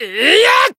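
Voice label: Voice attack, Japanese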